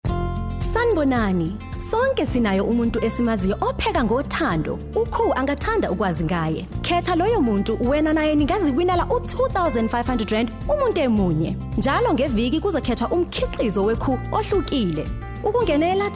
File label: Zulu Radio Promo; Koo Showcase; OSM Talent